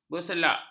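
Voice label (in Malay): "berselak", Malay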